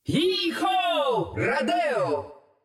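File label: Russian; 奥丽莎（守望先锋2）; 终极技能; 俄语; Родео!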